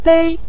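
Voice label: "dei" neutral tone, Mandarin Chinese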